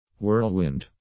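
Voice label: "whirlwind", English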